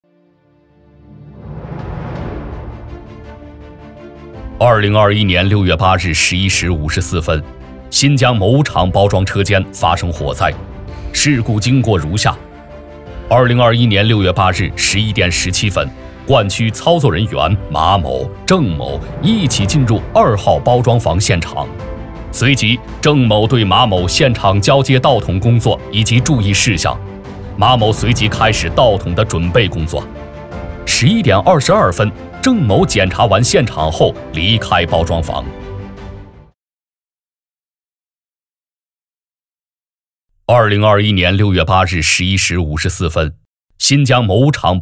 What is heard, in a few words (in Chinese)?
男 警示片 火灾警示片配音